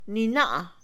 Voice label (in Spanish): nunca, Mixe, Mujer; INALI